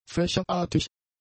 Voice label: "fächerartig", German; adjectives